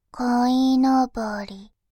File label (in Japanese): こいのぼり, バイノーラル左, ASMR